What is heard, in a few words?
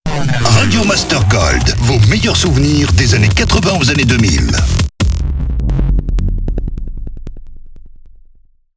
Sweeper SPEED; Radio Master GOLD; Habillage